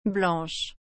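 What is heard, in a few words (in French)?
"blanche", French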